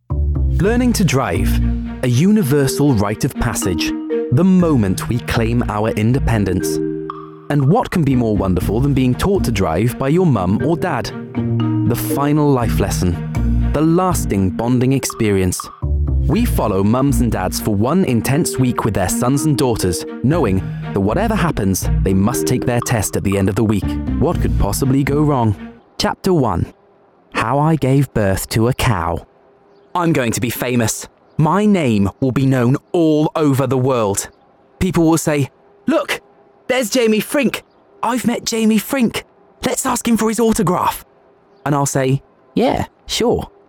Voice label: Narrative